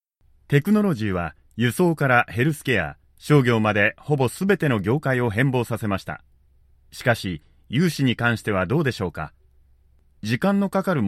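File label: Japanese, male, narration, games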